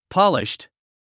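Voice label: "polished", English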